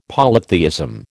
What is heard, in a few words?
"polytheism", American